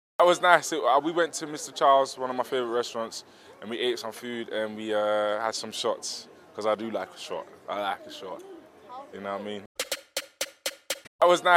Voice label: multicultural english; Stormzy; The Portrait